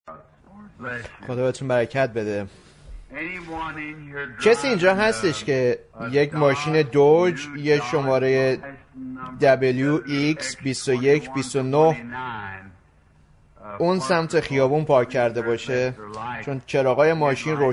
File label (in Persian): Farsi Voice Over; Ephesian Church Age